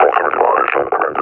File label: near panic, officer; combine; Half Life Alyx; Half Life Model Pack